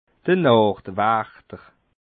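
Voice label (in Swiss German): "nachtwachter", Bas Rhin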